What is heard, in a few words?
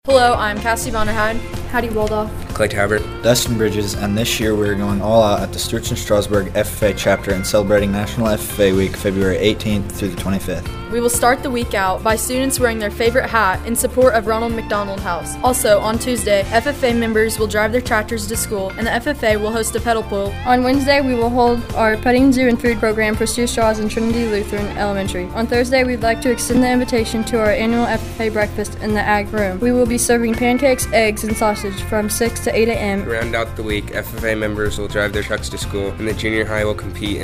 male female; Stew Stras FFA